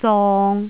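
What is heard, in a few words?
"zong3", Cantonese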